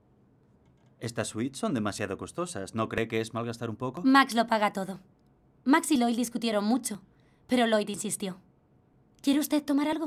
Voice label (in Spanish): voz, actriz de doblaje